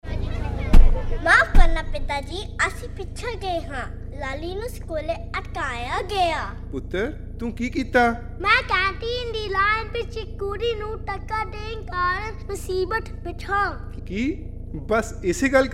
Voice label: Radio Punjabi; CALD